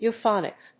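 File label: "euphonic", English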